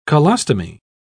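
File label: "colostomy", British English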